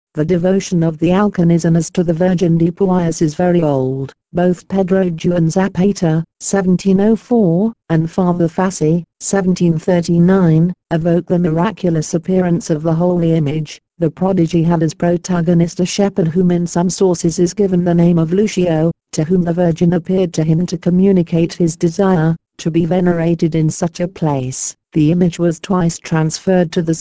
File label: Ingles; Santuario Virgen de Pueyos